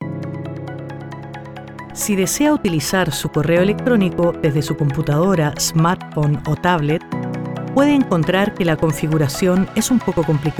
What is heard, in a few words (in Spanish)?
elearning